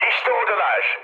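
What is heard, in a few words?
Turkish enemy detected, mood med, speech; War Thunder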